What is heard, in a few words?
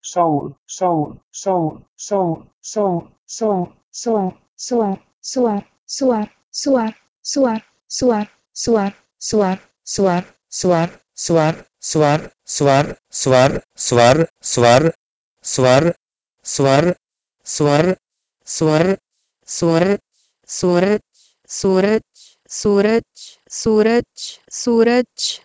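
Proto-Indo-European soh2wl to Urdu suraj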